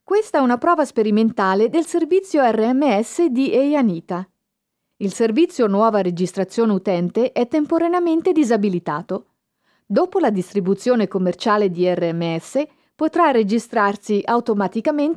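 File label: Italian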